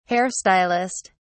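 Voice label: "hair stylist", British English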